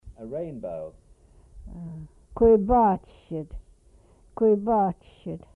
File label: rainbow, Twana